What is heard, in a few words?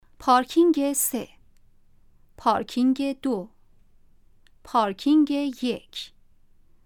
sound of announcing the elevator floors